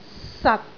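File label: "sat6", Cantonese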